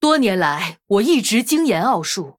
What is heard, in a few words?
Female Human Picked, zh